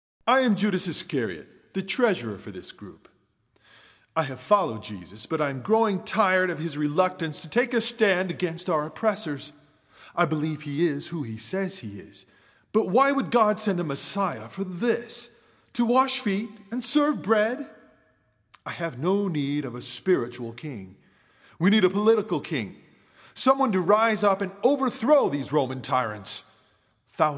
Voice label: Monologue; Judas Iscariot; living last supper